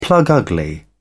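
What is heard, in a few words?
"plug-ugly", British English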